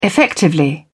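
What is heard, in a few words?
"effectively", British English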